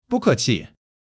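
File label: "bu4 ke4 qi5", Mandarin Chinese; 基础汉语40课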